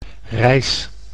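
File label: "reis", Dutch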